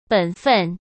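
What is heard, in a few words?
"本分", Mandarin Chinese; chinese flashcards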